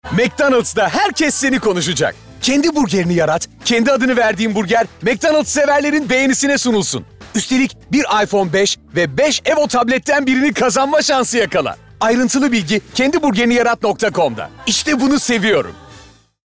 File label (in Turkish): Neseli